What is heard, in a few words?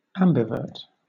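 "ambivert", British English; pronunciations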